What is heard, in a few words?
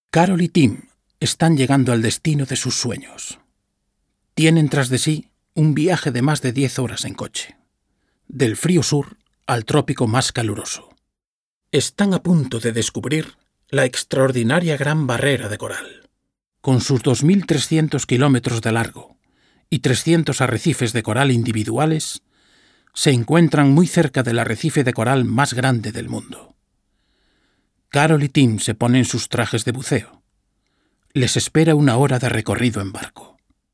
Castilian Spanish; VOICES